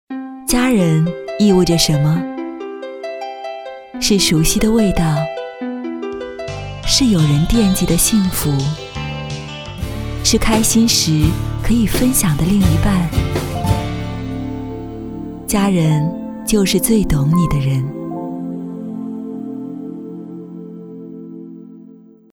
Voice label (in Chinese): 广告 智能家居